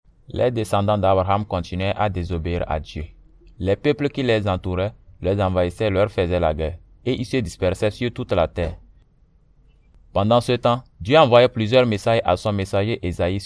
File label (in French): la souffrance du sauveur, african french; west africa bible stories; promised saviour